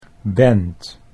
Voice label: "band", Dutch